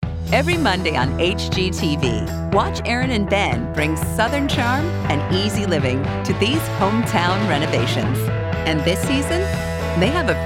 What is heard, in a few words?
V.O. Promo, female voices